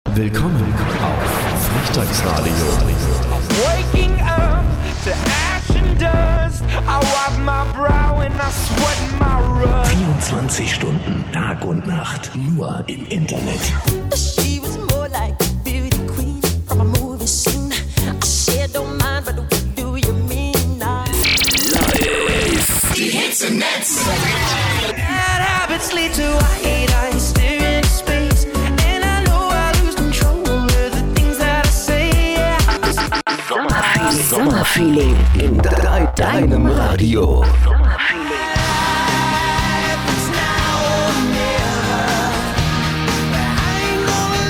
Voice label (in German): Anmoderation; FrechdaXradio; Stream Files (Moderation)